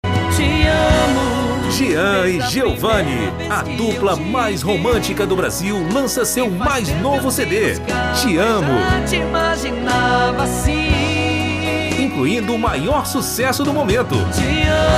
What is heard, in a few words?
spot radio